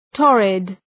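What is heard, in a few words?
"torrid", English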